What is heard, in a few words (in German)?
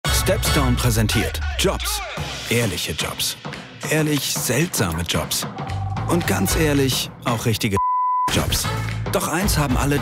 spot